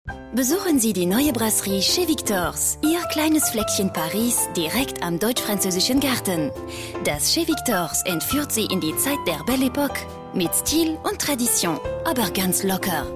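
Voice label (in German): Werbung deutsch mit französischem Akzent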